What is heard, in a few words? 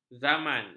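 "zaman", Malay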